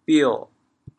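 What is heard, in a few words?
"bio3", Teochew, chaoyang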